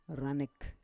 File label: "ranek", Roon